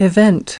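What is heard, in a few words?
"Event" US English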